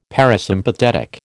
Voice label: "parasympathetic", American

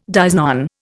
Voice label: "dies non", Indian

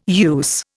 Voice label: "use", Indian

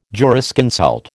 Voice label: "jurisconsult", American

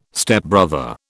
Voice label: "Stepbrother", British

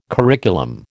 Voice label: "curriculum", American

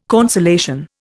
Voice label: "consolation", Indian

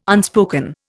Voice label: "unspoken", Indian

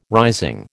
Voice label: "rising", American

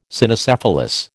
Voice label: "Cynocephalus", American

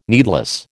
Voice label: "needless", American

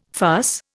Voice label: "farce", Indian

